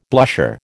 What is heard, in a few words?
"blusher", American